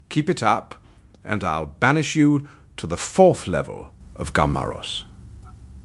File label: upset; fertig classic games; zanthor